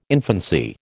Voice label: "infancy", American